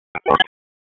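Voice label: Raleigh Police Special Operations Tactical, p25; wake p25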